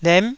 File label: "lem9", Taiwanese syllable